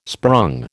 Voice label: "sprung", American